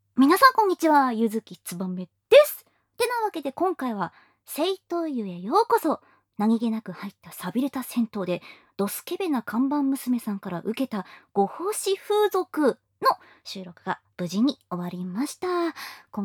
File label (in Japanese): フリートーク